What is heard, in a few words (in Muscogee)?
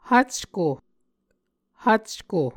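"hvcko", Muscogee word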